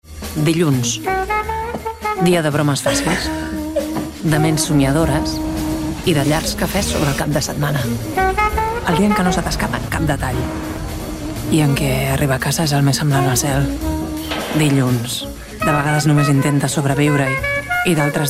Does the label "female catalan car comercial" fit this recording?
Yes